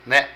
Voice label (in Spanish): Aquí, Zapoteco de Petapa; Vocabulary